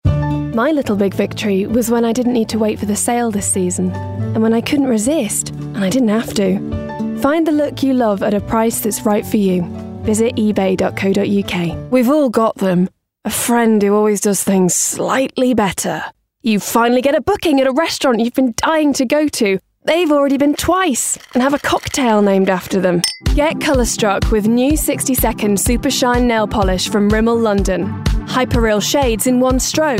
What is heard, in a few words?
Commercial Voicereel